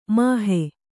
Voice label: "ಮೋಹ", Kannada

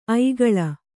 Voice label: "ಐಗುಳ", Kannada

